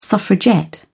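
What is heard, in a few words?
"suffragette", British English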